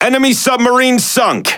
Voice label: En Speech Destroy Enemy Sub; World Of Warships